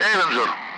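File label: Speech, Russian; Allied Flight; AirDefence; Avenger; DCS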